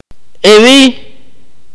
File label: "yesterday", Akateko